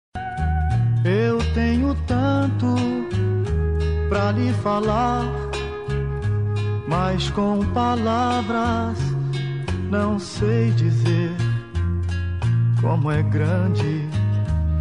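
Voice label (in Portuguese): dia dos pais female distante linda, voz feminina linda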